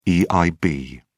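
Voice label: "eib", British English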